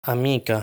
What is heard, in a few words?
"Amica", Italian; lesson 6 articles plural